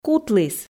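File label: "кутліс", Komi, female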